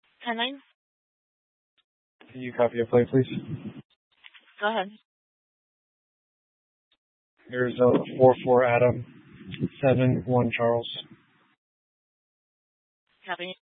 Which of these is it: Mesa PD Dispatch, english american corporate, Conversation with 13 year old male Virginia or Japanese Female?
Mesa PD Dispatch